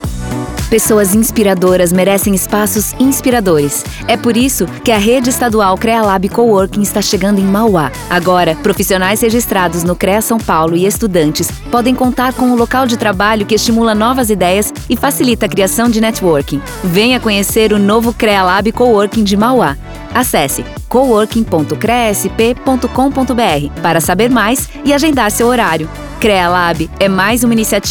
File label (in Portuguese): spot; realab maua; creasp